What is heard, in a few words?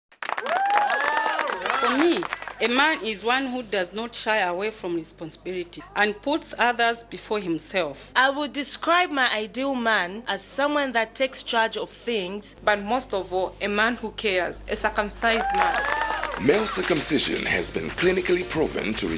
Radio Spot (For Female Partners); Zambia